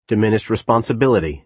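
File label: "diminished responsibility", American English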